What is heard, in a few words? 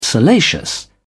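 "salacious", British English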